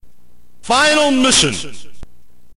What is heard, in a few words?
narrator